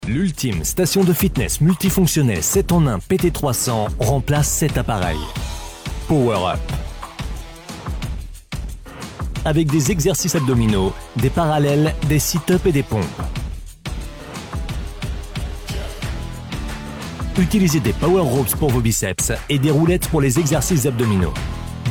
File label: commercial; Sportstech power tower; French voice-over